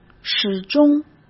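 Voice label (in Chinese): "始终", Mandarin; chinese flashcards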